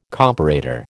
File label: "comparator", American